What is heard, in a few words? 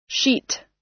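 "sheet", US English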